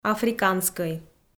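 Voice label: "африканскӧй", Komi, female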